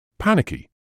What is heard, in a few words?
"panicky", English